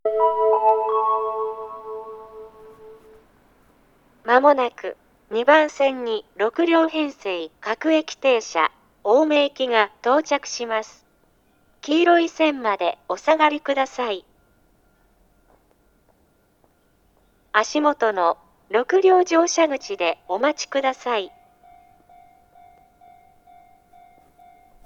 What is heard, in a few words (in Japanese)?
接近放送, 旭型放送(女声), 青梅線, 4・6両編成の電車では乗車口案内が付帯していました。ミスによりチャイム欠けてます...; 青梅 奥多摩 方面; 6両青梅行; 牛浜; スピーカー JVC横長型; 下り 青梅方面